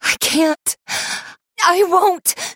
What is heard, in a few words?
pain low health warning; female